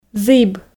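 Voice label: "зіб", Komi, female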